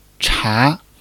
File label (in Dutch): "茶", Mandarijn; thee-in-andere-talen